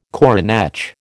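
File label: "coronach", American English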